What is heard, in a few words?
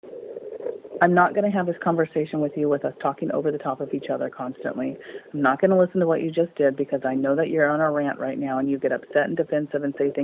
audio message